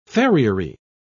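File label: "farriery", British English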